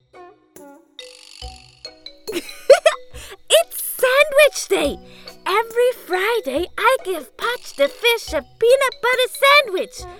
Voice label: female German multiple accents animation compilation; swiss german